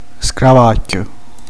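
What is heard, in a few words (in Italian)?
"scarafaggio", Italian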